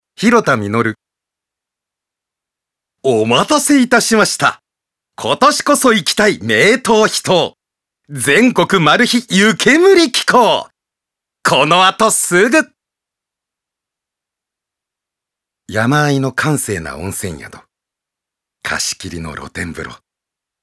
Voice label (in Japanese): ナレーションサンプル